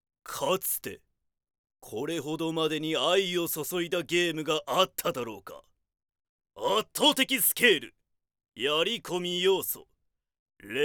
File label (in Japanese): ナレーション